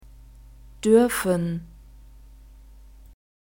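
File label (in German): "dürfen", German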